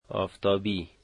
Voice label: "sunny" Dari